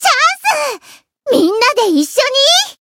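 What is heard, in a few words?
Voice limit break Japanese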